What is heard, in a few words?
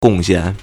"gong4xian4", Chinese